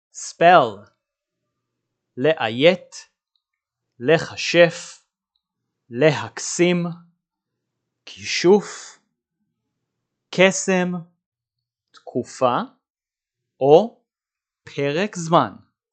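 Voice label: "spell" English, "לאיית לכשף להקסים כישוף קסם תקופה פרק זמן" Hebrew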